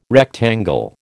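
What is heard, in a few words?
"rectangle", American English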